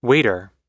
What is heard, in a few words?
"waiter", us